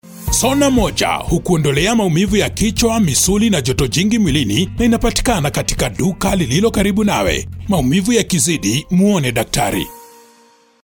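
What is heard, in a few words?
Swahili commercial; GoLocalise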